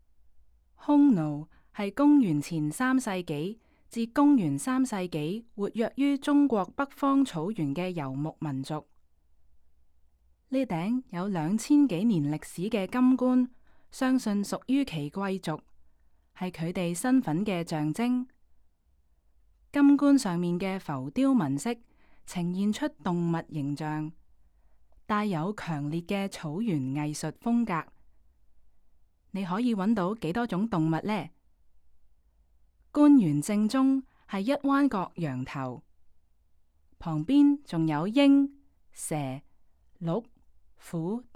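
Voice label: Cantonese, audio guide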